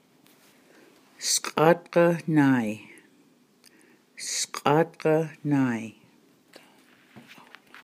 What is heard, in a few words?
SNES Voice